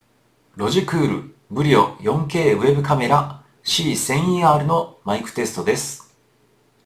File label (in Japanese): ロジクール BRIO 4K C1000eR マイク音質テスト; Webカメラ